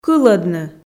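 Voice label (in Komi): "кылӧдны", Komi, female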